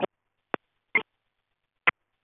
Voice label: Space to Ground; Expedition 39